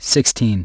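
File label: "sixteen", English; iOS 4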